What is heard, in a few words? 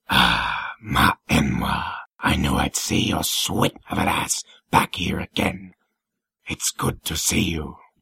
ad lib lines, dark elf voice auditions; nwah